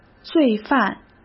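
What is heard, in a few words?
"罪犯", Mandarin Chinese; hsk; chinese-flashcards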